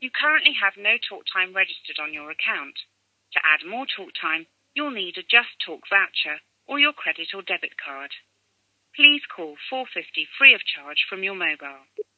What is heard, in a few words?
no credit message, phone; SFX